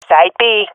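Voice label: loc b, swat female; vo; agents; Source Model Packs; Counter Strike Model Pack; CS2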